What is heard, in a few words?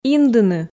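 "индыны", Komi, female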